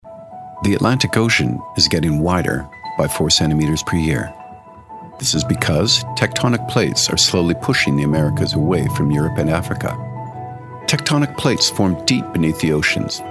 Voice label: english canadian documentaries